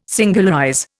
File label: "singularize", Indian